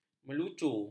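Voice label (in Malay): "melucu", Malay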